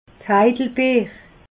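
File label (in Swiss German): "heidelbeer", Alsatian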